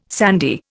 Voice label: "sandy", Indian